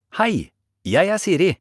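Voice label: Norwegian Bokmål; iOS 26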